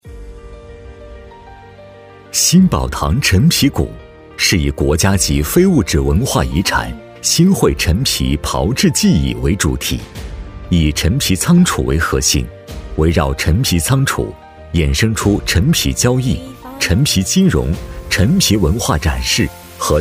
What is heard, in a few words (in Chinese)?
男 康养; 新宝堂陈皮谷